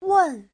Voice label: "wen4", Chinese